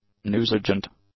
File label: "newsagent", English